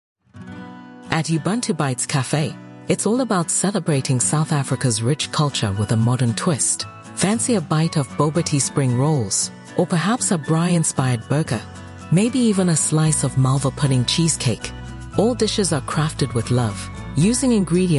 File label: narration; ubuntubitescafe